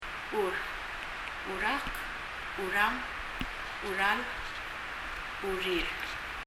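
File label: "chur" Palauan, tongue; 舌; 発音; 身体の各部位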